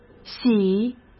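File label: "洗", Mandarin Chinese; hsk; chinese-flashcards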